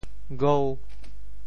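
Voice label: "gou5", Teochew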